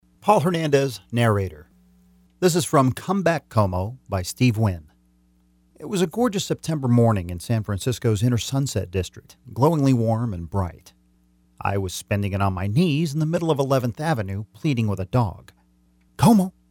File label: Audio Book